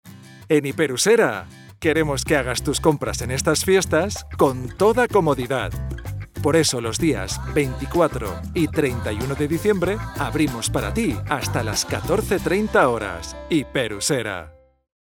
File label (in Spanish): CUÑA HORARIO